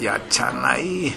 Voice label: "yachanae", minamiyamagata